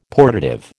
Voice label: "portative", American